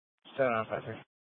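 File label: Police Department Dispatch, scanner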